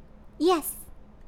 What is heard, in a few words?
"Yes", human girl villager; customnpcs